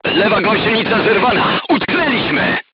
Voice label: voice message left track knocked down, crew tanks polish